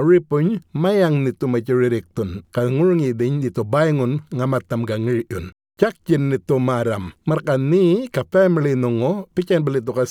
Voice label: diphtheria outbreak yolngu matha

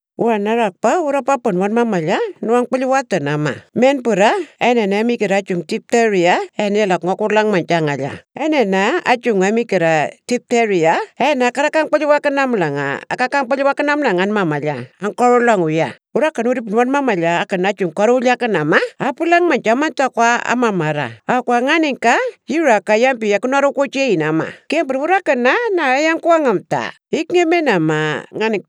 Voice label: anindilyakwa; diphtheria outbreak

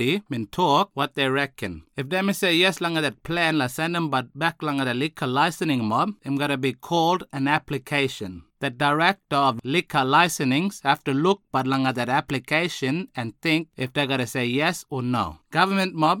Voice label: changes to alcohol restrictions westside kriol

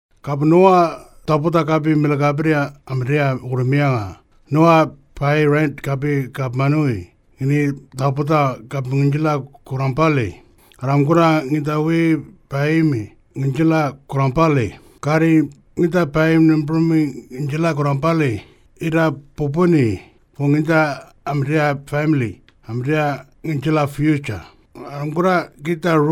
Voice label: Tiwi

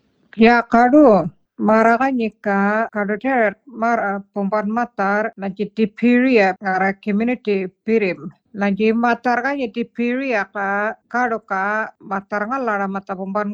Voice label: diphtheria outbreak, murrinh patha

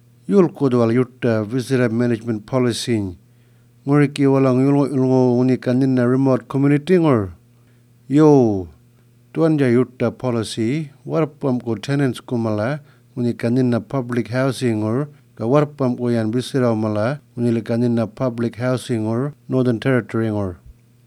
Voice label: Yolngu Matha

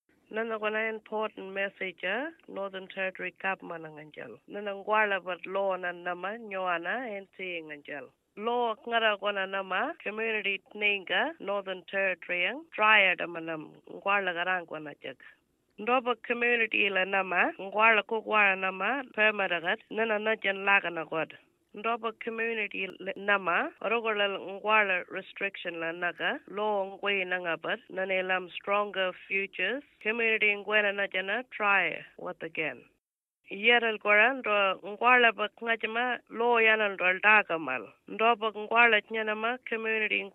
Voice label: changes to alcohol restrictions arrernte

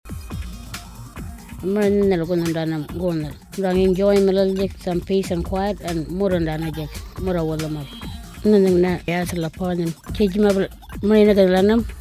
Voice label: anmatyerr

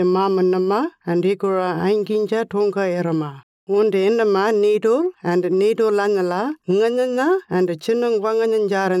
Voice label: diphtheria outbreak western arrarnta

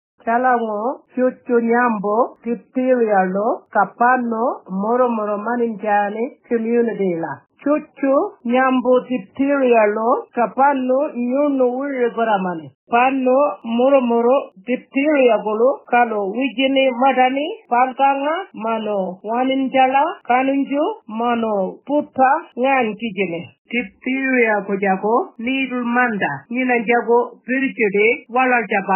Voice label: diphtheria outbreak warlpiri

